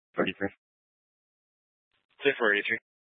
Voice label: Police Dispatch; Police 1 Dispatch